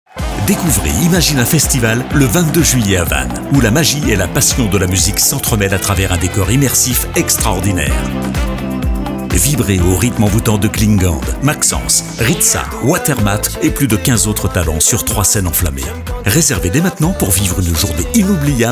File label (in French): Pub radio; Imagina